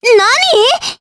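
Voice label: Vox Japanese; Cleo; kingsraid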